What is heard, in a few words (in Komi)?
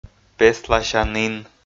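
"песласянін", Komi, male; audio dict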